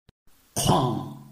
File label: "kuang4", Chinese